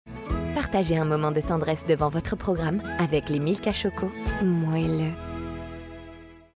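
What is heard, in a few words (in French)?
DOUBLAGE - Jeu Vidéo - énervée, Comédienne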